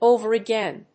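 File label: "over again", English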